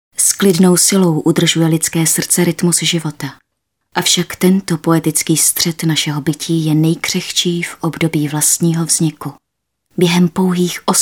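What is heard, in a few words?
Female Czech documentary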